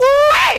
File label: "ooh wee" male